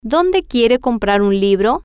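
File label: where do you want to buy a book, Spanish, female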